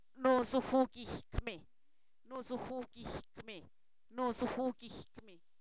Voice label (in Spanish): está de cabeza, Zapoteco, san miguel albarradas; verbos; INALI